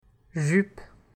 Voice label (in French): "jupe", French